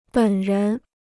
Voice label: "本人", Chinese